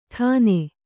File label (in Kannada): "ಟನ್", Kannada